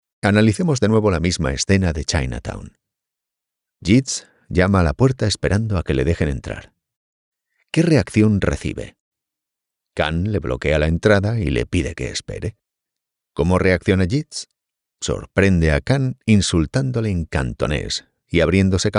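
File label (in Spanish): spanish narration, Español voice actor, Una voz grave; requiera transmitir seguridad; Narración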